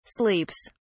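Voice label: "sleeps", British English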